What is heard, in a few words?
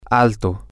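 tall, Spanish, male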